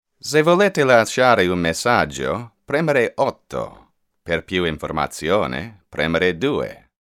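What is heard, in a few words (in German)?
italienisch amerikanisch, männlich, Telefonansagen; Sprecher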